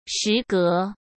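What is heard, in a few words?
"时隔", Mandarin Chinese; chinese-flashcards; hsk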